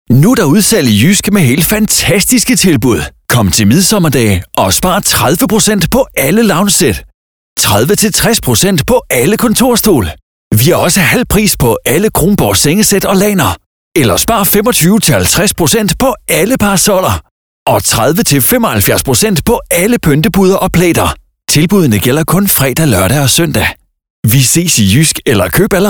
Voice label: COMMERCIAL HARDSELL